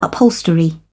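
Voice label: "upholstery", British English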